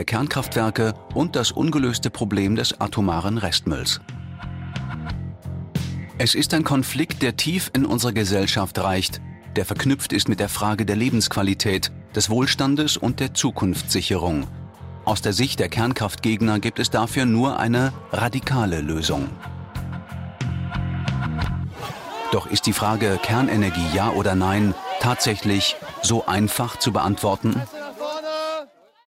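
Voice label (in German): kommentar, deutsch männer; sprecher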